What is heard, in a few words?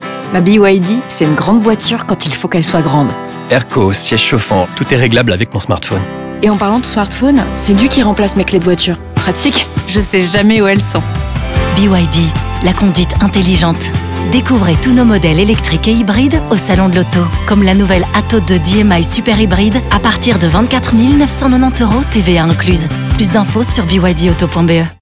RADIO FR; BYD; Sonhouse; EOY Campaign; Egghunter remet les humains au centre pour BYD